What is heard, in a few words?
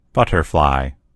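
"butterfly", American English; gcompris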